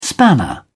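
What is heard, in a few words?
"spanner", English, British English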